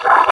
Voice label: pain, combine soldier; hl2

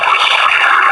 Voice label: throw grenade, combine soldier; hl2b

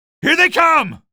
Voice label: alert antlions, male; hl2; ep1

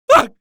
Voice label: shock, male

